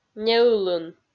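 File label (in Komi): "неылын", Komi, female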